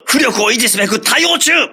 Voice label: repairing, voice message, crew ships japanese

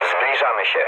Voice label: near waypoint mood low, speech pol; War Thunder

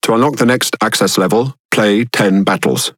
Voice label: Speech Access Level Unlock, EN GB; World Of Warships

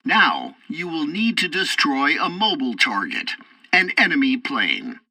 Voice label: tutorial fighter fight, english; War Thunder

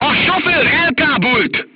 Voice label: voice message driver contused, hungarian

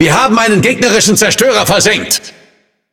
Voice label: Speech Destroy Enemy Destroyer, DE; World Of Warships

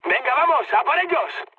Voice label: enemy detected, mood high, speech; War Thunder

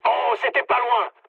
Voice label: bomb failure mood med, speech fr; War Thunder

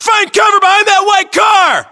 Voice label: order cover car white, us

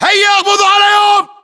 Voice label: order attack infantry, battlechatter, english

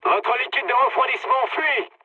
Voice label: damaged water mood med, speech fr; War Thunder

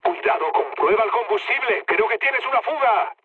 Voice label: tank damaged, mood low, speech; War Thunder

